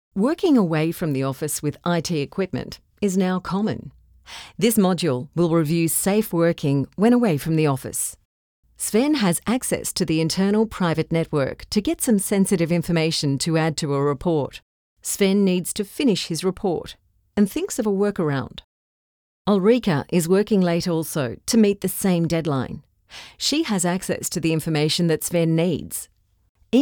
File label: E Learning